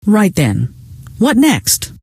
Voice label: what next, scientist female